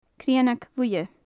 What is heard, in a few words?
"crionag-bhuidhe", gaelic; Faclan Nàdair